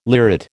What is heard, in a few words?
"lyrate", American